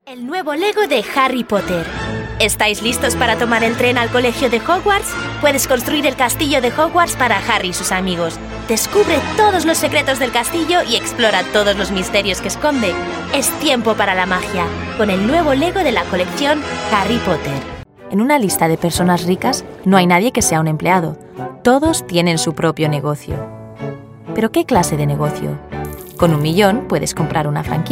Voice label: Spanish

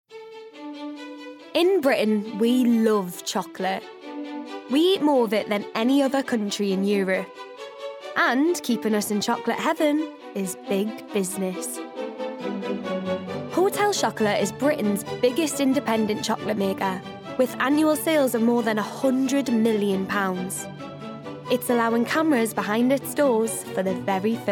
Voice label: Documentary

